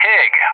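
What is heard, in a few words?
"Pig", English; JTAC; Callsign; DCS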